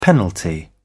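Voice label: "penalty", English, British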